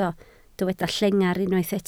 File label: "llengar", Welsh